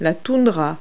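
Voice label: "tundra", English word; Multi-Lingual Talking Picture Dictionary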